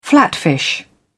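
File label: "flatfish", British English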